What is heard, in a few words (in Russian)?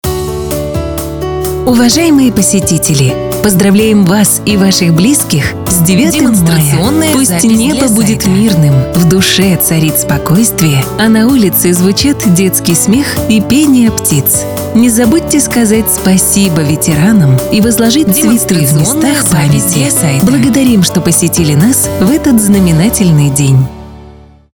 Поздравление с 9 мая, женский; универсальное